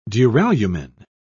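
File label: "duralumin", British English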